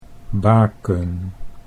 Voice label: "baken", Dutch